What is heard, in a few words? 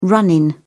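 "run in", British English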